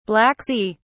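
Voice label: "Black Sea", British English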